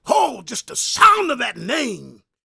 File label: preachin, gospel preacher